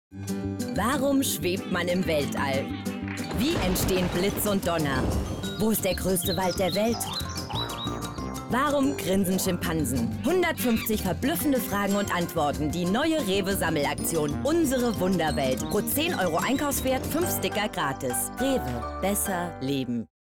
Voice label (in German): werbung; rewe wunderwelt